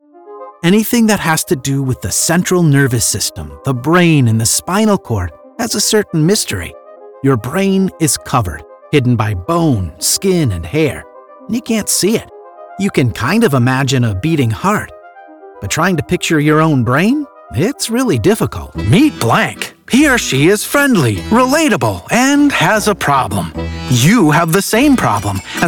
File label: E-Learning